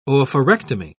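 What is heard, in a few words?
"oophorectomy", British English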